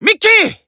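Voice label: Voice Emotion Call Name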